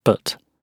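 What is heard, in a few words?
"but", British English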